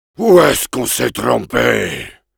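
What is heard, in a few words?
Heavy jeers French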